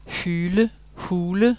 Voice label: dansk hyle hule, dansk [ˈhy:lə ˈhu:lə]

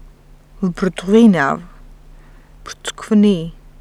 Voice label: georgisk; [vbrdɣˈvinav vprtskvni]

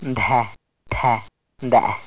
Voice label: mazatekisk; [ndǽ̤ tʰǽ ndǽ̰]

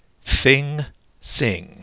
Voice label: engelsk thing, engelsk [θɪŋ sɪŋ]

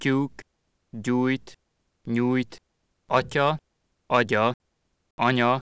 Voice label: ungarsk; [cu:k ɟujt ɲujt ˈʌcʌ ˈʌɟʌ ˈʌɲʌ]

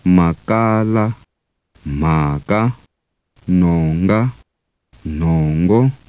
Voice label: tsonga; [màkálá m̤àká nòŋgá n̤òŋgó]

